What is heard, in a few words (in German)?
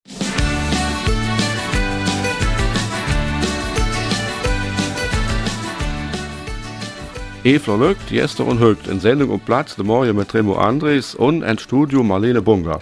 Eifeler Mundart; Die belgischen Emigranten von gestern